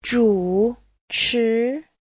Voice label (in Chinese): "zhu3chi2", Chinese